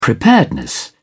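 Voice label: "preparedness", British English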